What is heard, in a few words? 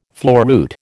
"floruit", American